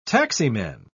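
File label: "taximan", British English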